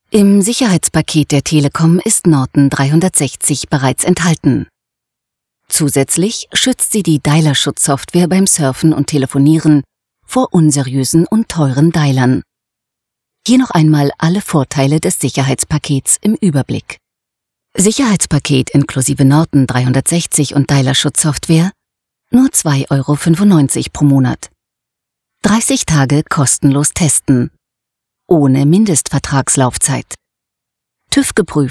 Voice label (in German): Erklaerfilm; Norton